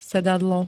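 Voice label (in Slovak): "sedadlo", Slovak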